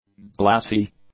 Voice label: "glassy", english; adjectives